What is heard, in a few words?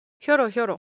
Japanese Shape, Ideo Phones